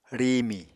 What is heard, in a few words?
"riimi", Apatani